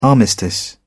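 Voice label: "armistice", British English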